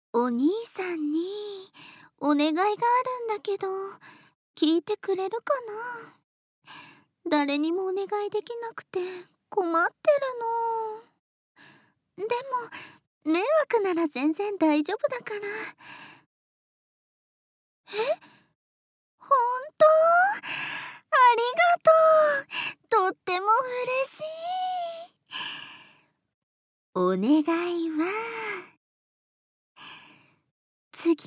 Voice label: Woman